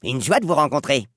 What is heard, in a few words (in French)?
une joie de vous rencontrer, gnome male; PhoenixCraft-1.16.5; PhoenixNPCPack; minecraft